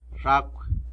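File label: "cockroach", Tlacolula Zapotec; zhacw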